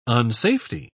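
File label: "unsafety", English, British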